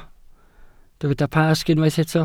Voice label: "Pasg", Welsh

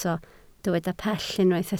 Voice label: "pell", Welsh

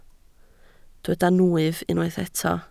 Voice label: "nwyf", Welsh

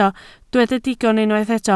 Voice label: "digon", Welsh

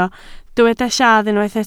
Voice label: "lladd", Welsh

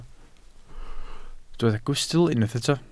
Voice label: "gwystl", Welsh

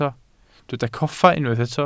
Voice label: "coffa", Welsh

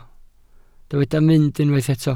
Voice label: "mynd", Welsh

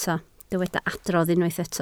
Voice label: "adrodd", Welsh

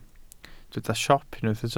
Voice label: "siop", Welsh

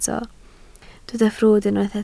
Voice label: "ffrwd", Welsh

